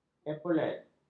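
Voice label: "epaulet", Malay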